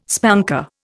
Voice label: "spanker", Indian

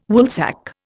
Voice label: "Woolsack", Indian

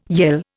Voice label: "yell", Indian

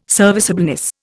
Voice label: "serviceableness", Indian

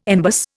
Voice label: "embus", Indian

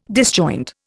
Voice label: "disjoint", Indian

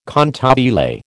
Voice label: "cantabile", American

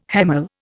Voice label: "hemal", Indian

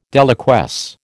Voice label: "deliquesce", American

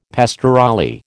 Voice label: "pastorale", American

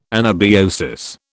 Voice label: "anabiosis", British

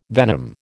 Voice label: "venom", American

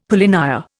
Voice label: "polynya", Indian; Dictionary

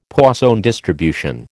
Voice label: "Poisson distribution", American

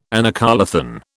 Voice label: "anacoluthon", British

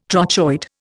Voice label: "trochoid", Indian

